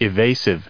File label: "evasively", us